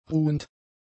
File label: "ruhend", German; adjectives